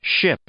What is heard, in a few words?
"ship", English; i-ɪ; noun